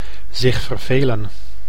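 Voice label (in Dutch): "zich vervelen", Dutch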